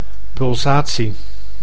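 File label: "pulsatie", Dutch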